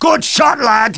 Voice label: cheer; tf2demoman